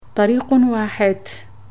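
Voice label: Arabic; voie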